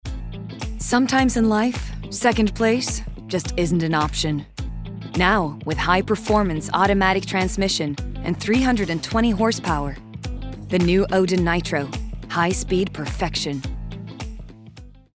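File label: Norwegian advert; kvinne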